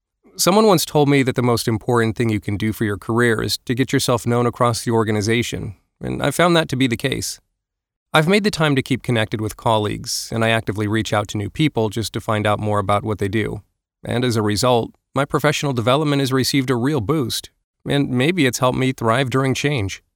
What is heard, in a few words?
Casual